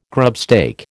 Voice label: "grubstake", American